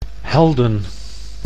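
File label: "Helden", Dutch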